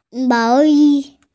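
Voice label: "mao yi" Chinese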